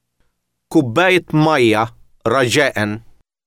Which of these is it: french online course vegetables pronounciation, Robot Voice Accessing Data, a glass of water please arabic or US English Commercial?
a glass of water please arabic